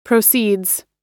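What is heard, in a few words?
"proceeds", American English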